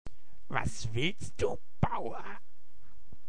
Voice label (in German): Komisch - Bauer; Was willst Du, Bauer !?!